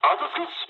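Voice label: near waypoint mood high, speech japanese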